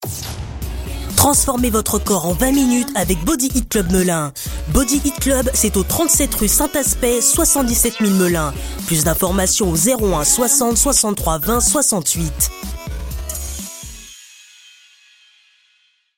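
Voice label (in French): voix masculine affirmé tonique; BODY HIT CLUB Melun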